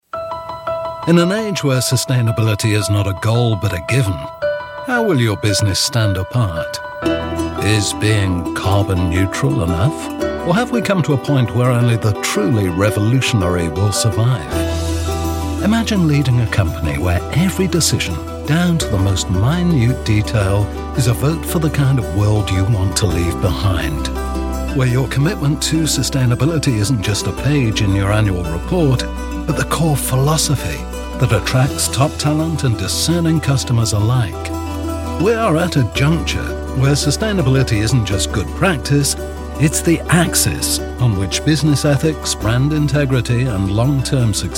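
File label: motivational corporate narration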